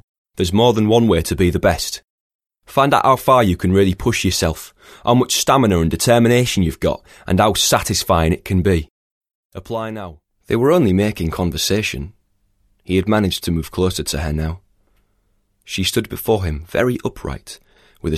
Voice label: Yorkshire

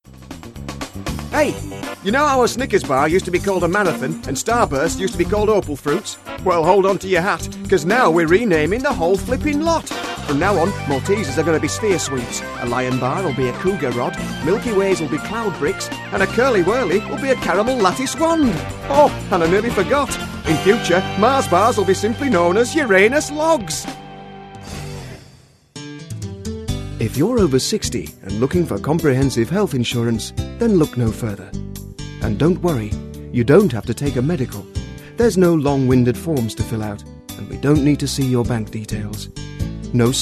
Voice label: Yorkshire

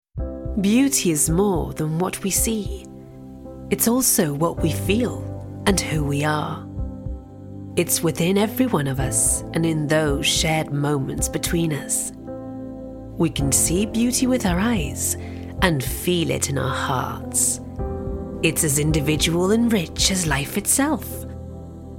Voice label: Welsh accent

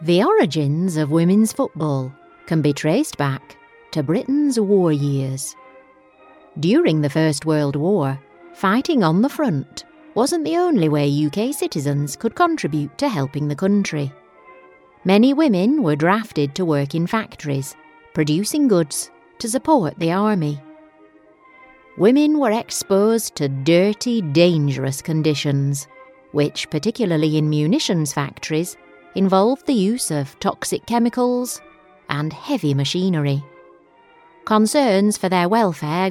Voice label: Narration

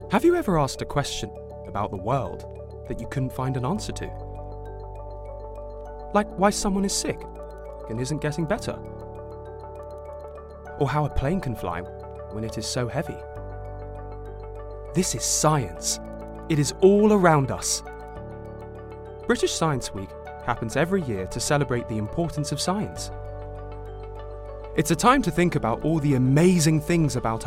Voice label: Corporate

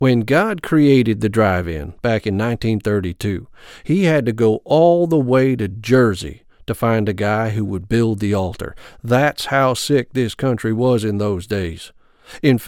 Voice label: audiobook

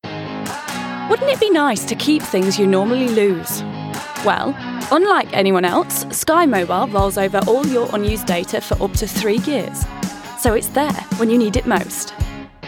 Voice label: Commercials

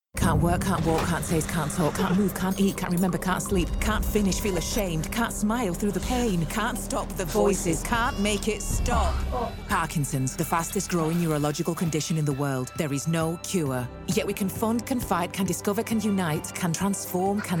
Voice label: Commercials

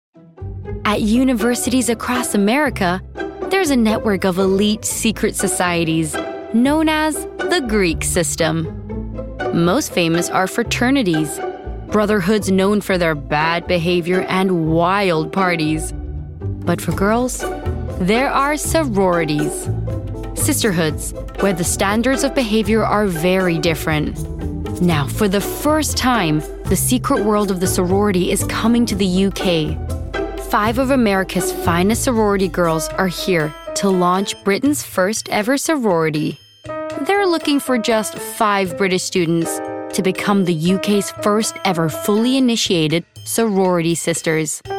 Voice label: Documentary

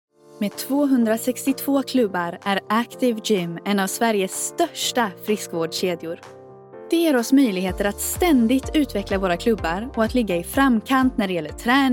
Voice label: Swedish

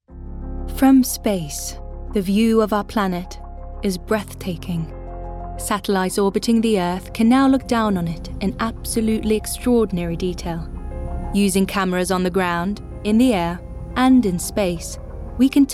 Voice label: English accent; Norwegian, Scandinavian

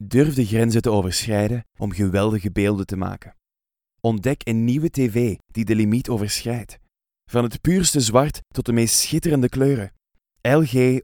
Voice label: Flemish; Male, Home Studio, 30s-50s

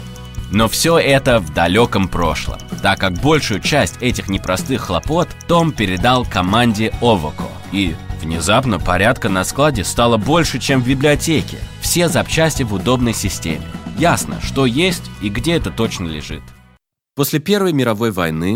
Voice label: Russian

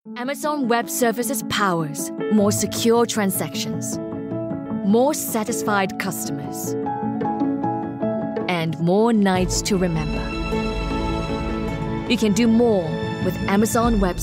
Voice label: Commercial English

